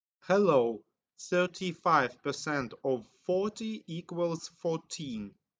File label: en-US percent